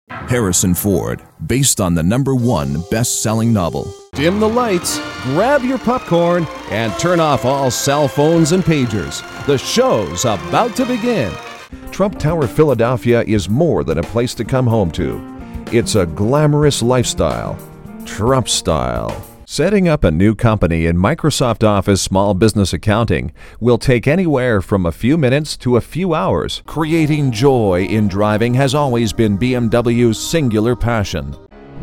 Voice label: English, english males